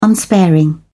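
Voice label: "unsparing", British English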